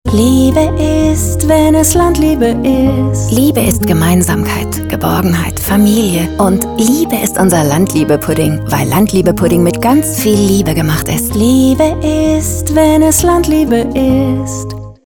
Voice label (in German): Werbung Sprache Gesang; Landliebe